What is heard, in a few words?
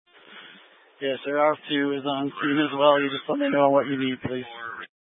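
Incident Tactical 1, Scanner audio recording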